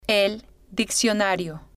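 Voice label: "el Diccionario", Spanish, female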